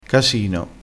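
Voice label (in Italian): "casino", dialettale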